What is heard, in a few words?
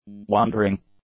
"wondering", english; adjectives